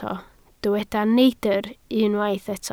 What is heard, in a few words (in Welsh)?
"neidr", Welsh